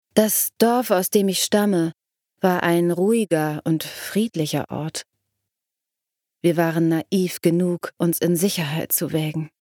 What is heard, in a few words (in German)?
game traurig